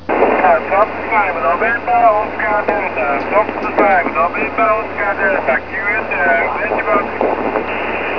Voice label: 28 ssb